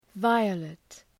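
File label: "violet", English word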